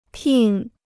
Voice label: "聘", Chinese

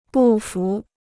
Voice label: "不服", Chinese

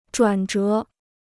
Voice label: "转折", Chinese

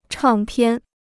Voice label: "唱片", Chinese

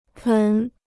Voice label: "盆", Chinese

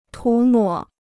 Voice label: "涂抹", Chinese

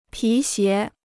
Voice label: "皮鞋", Chinese

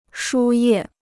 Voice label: "输液", Chinese

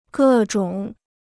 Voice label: "各种", Chinese

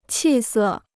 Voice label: "气色", Chinese

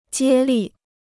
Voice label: "接力", Chinese

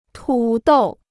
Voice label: "土豆", Chinese

